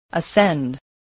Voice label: "ascend", English